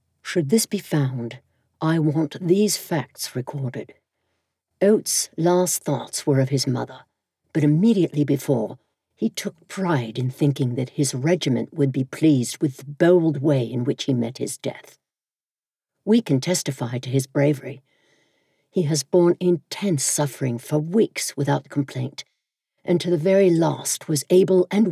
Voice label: Accents British Commander; Commander Scott